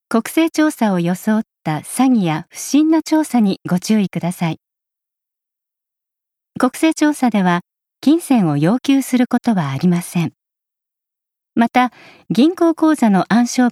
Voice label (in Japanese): 注意喚起